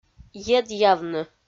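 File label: "едъявны", Komi, female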